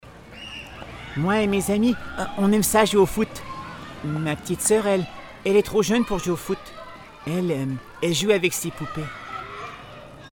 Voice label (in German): FR Kindstimme; LOFT VOICES